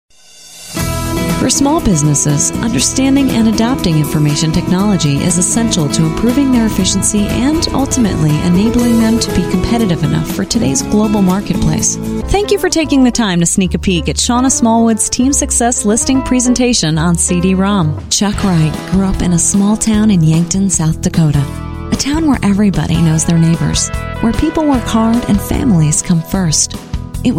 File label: US English Narration, Female